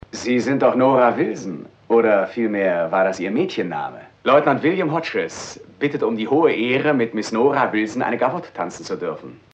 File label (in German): Hörprobe des deutschen Synchronschauspielers; Lex Barker